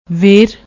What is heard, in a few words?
"ware", Punjabi; ਵੇਰ